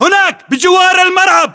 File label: landmark near garage, localized english battlechatter